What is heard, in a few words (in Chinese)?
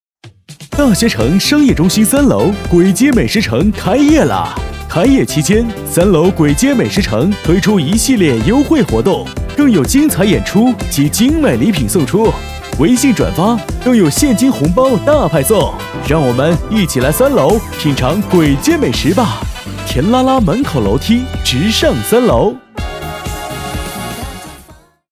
男 促销 广播; 簋街